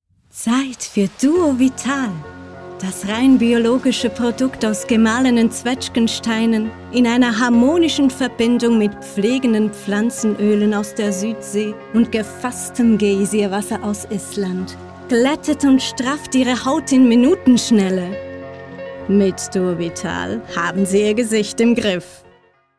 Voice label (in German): sprecherin werbung; Duo-Vital